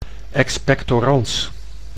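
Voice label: "expectorans", Dutch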